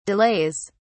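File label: "delays", British English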